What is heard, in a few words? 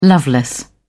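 "loveless", British English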